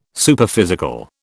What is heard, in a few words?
"Superphysical", British